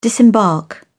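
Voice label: "disembark", British English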